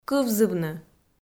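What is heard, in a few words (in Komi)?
"кывзывны", Komi, female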